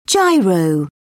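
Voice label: "gyro", British English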